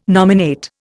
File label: "nominate", Indian